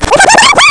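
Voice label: REWINDING, cartoon voice rewind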